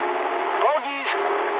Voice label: eng enemy detected mood med, speech eng